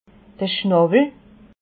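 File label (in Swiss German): "schnawel", Alsatian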